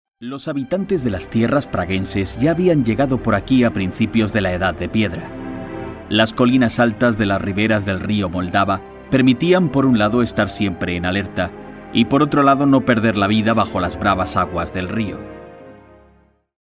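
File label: Spanish, male, narration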